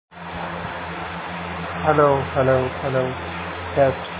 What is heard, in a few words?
Voice Note